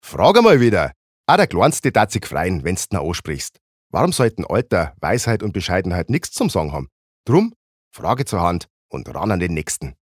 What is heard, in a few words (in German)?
Dialekt, Bayerisch